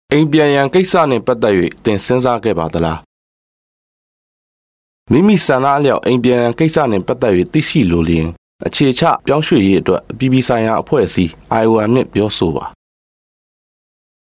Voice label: Burmese Male